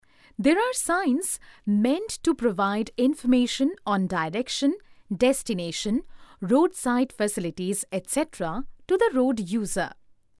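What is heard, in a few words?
narration english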